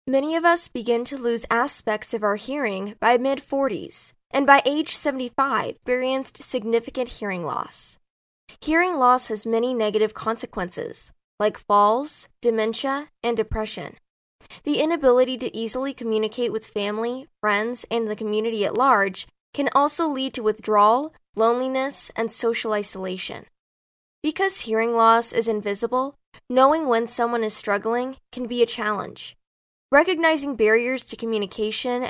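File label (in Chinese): 外籍女英_外语_美式英语_解说类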